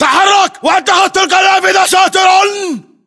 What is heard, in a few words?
order cover window, battle chatter, english